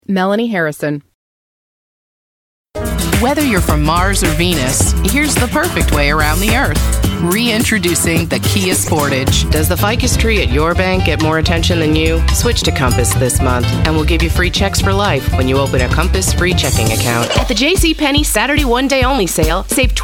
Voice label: commercial; Innovative LA